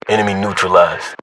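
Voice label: British inform killfirm infantry; battlechatter; localized english; uk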